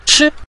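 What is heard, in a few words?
"chi", Mandarin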